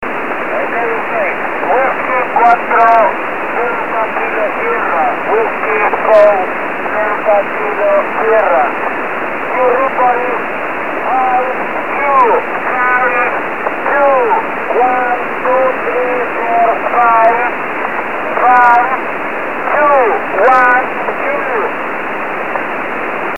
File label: 20 ssb